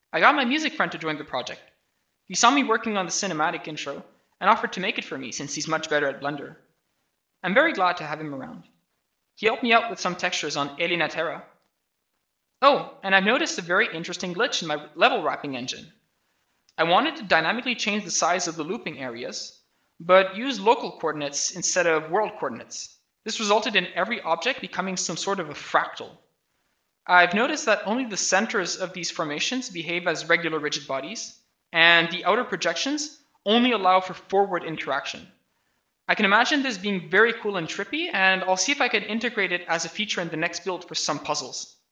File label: voice, narration